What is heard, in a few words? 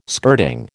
"skirting", American